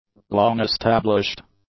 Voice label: "long-established", English; adjectives